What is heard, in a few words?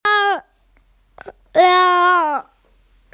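"le4", Mandarin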